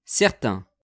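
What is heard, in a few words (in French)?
"certain", French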